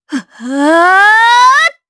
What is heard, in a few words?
Vox Casting jp; kingsraid